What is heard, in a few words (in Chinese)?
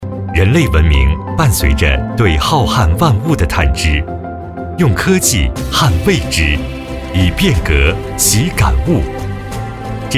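男 宣传片现代企业, 浑厚大气, 普通话; 瀚鼎·工智汇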